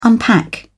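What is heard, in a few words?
"unpack", British English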